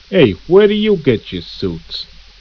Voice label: gangster idle

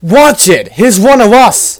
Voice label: scared

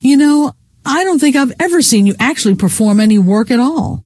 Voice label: any work at all, scientist female

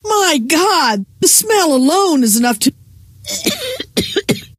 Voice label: smell, scientist female

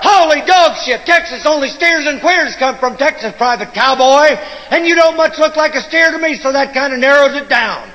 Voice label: chat; sgthartmann

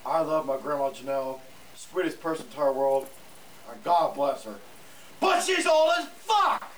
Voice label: Chat

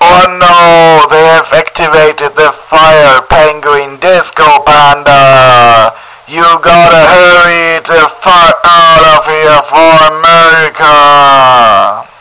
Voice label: radio; murica

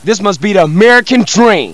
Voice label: Cheer; CarlJohnson

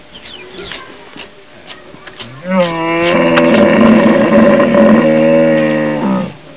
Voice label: english

